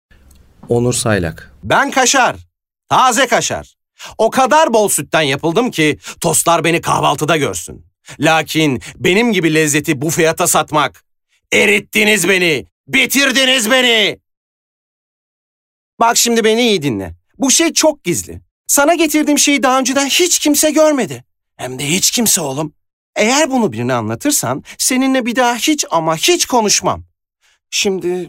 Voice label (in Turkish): Animasyon